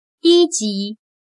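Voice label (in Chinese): "低级" Mandarin Chinese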